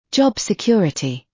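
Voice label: "job security" British; Business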